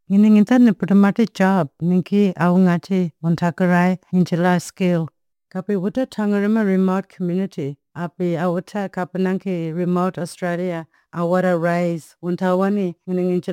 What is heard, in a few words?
Audio message Modern Tiwi; RAES